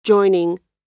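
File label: "joining", American English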